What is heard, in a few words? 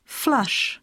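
"flush", English; poitiers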